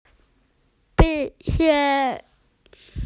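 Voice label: "graduation", Mandarin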